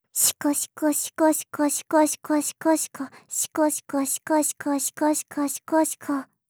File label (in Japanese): シコシコオンリー 速く